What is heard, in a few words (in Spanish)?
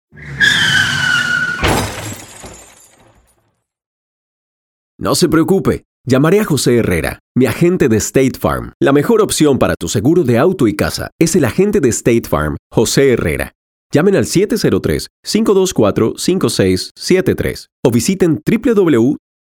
AGENTE DE SEGUROS, SP